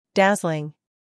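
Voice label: "humor", British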